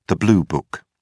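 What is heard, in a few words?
"blue-book", British English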